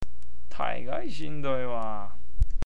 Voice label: "taigai", awaji ben